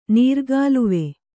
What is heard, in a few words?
"ನೀರುಕಾಲುವೆ", Kannada